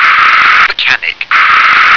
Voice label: "mechanic", English

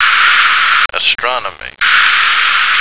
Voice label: "astronomy", American

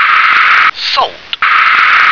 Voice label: "salt", English

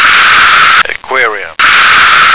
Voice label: "aquarium", American

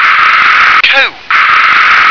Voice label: "comb", English

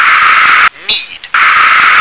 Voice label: "need", English

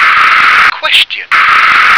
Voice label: "question", English

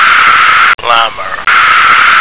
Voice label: "llama", American

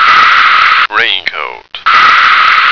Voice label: "raincoat", American

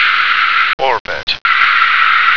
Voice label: "orbit", American

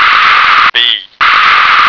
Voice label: "bee", American

